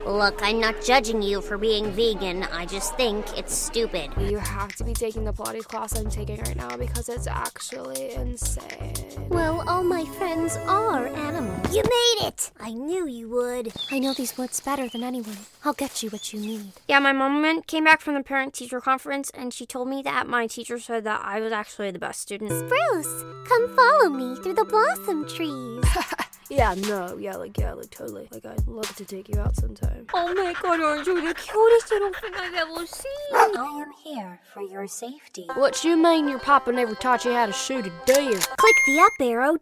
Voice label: Voiceover, Animation; Animation Demo; new york

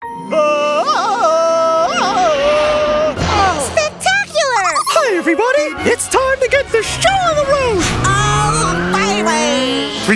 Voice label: Voiceover, Animation; New York

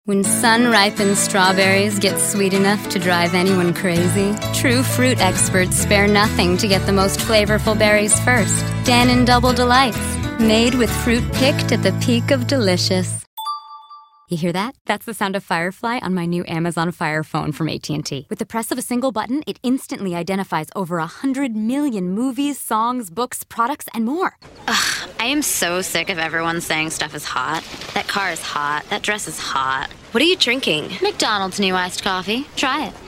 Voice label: Voiceover Commercial Women; New York

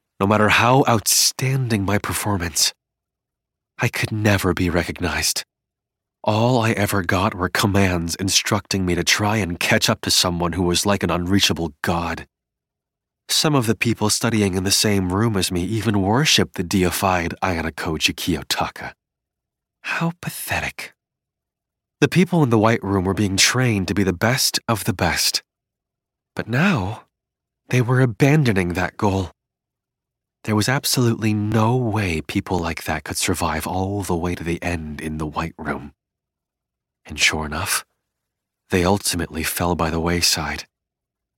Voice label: Voiceover, Audio Books; New York

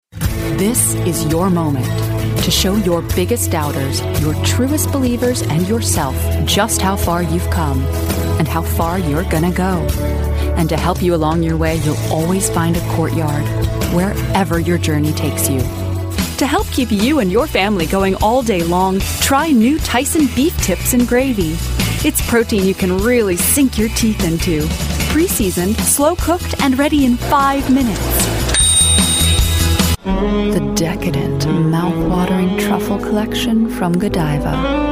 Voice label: Voiceover, Commercial, Women; New York

